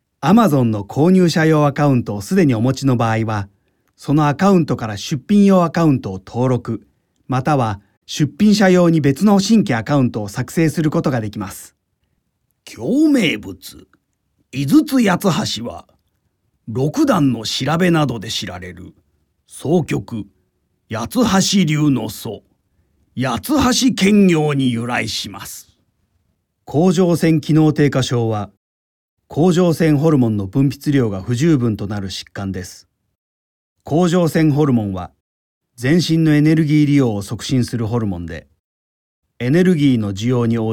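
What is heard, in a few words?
Japanese; amazon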